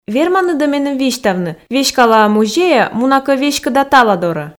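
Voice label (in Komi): верманныд-ӧ меным висьтавны веськала-ӧ музейӧ муна кӧ веськыда таладорӧ, Komi, female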